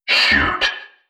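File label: "shoot", synthesized voice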